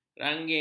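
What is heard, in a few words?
"rangin", Malay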